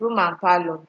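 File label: "room and parlour", Nigerian Pidgin, female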